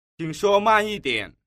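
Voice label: "qing shuo man yi dian", Chinese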